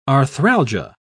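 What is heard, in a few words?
"arthralgia", English, British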